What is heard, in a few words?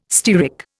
"stearic", Indian